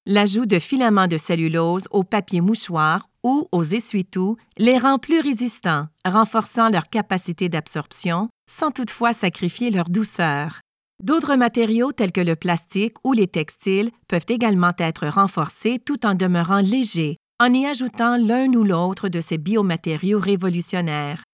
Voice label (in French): documentaire scientifique